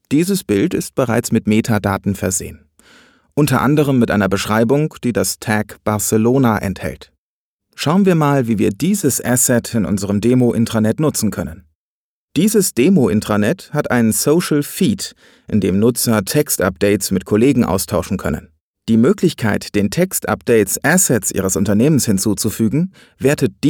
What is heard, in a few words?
e-learning